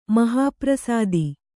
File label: "ಮಹಾಪ್ರಸಾದಿ", Kannada